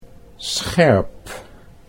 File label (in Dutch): "scherp", Dutch